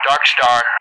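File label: "Darkstar", English; Callsign; DCS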